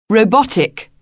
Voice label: Robotic